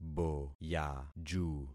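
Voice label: "boyaju", concatenated syllables; Affixing experiment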